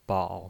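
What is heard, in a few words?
"bao", Mandarin Chinese, third tone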